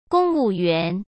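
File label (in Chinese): "公务员", Mandarin Chinese; hsk; chinese-flashcards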